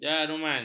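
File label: "jaruman", Malay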